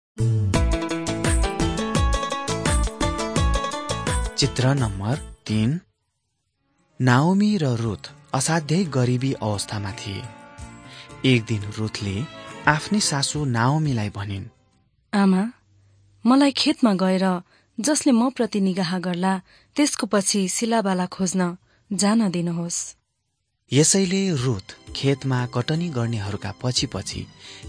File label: servants of god nepali